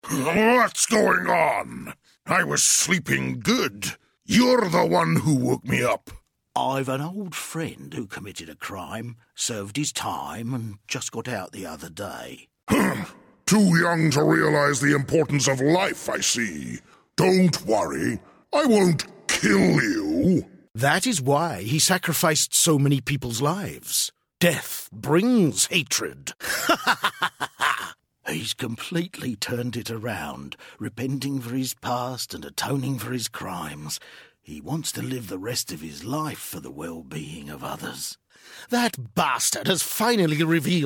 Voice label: men animation; Polished, sophisticated.

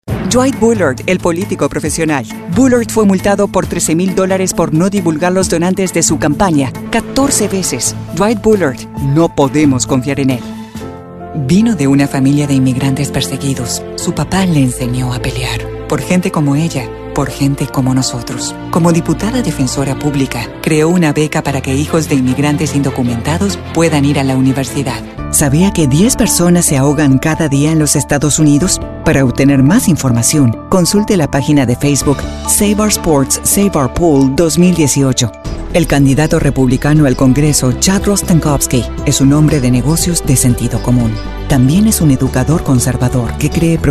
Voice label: spanish political

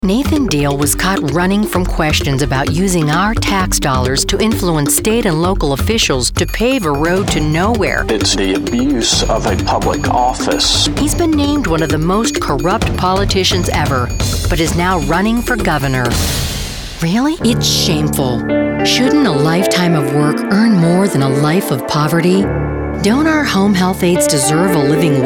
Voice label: women political; Your script. My voice. A splash of sass. Problem solved!

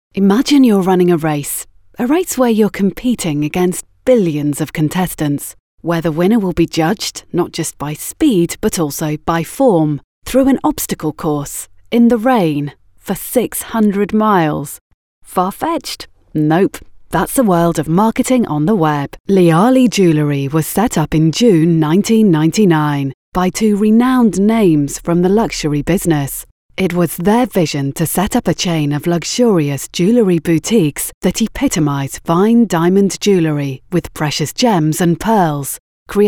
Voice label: british narration; BRITISH » Knowledgeable and bright British voice.

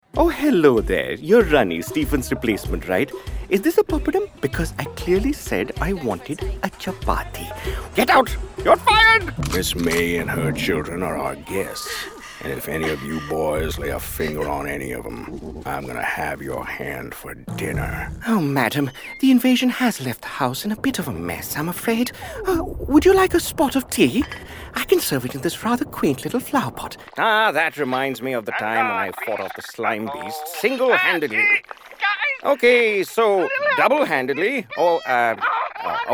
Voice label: hindi accented english

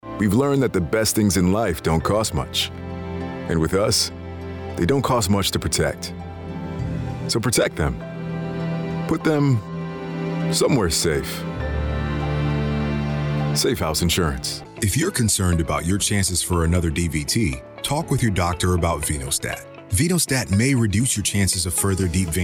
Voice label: african american commercial; Warm, trustworthy, and sophisticated.

